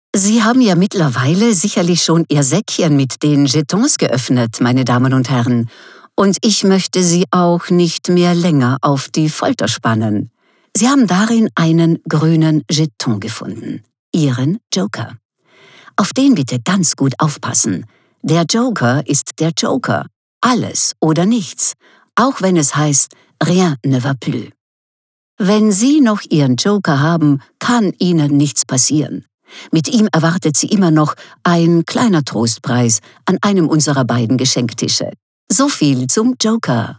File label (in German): Moderation; Arag